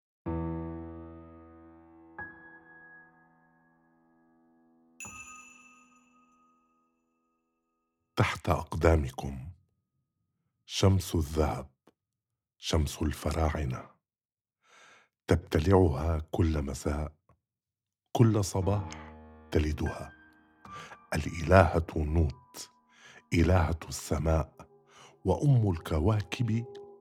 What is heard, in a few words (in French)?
Sous vos pieds arabe, poèmes; le soleil de Louxor